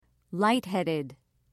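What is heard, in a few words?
"lightheaded", English, British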